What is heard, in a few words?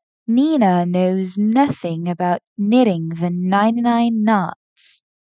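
Tongue Twister n, pronunciation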